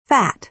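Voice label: "fat", American English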